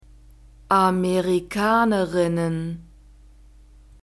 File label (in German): "Amerikanerinnen", German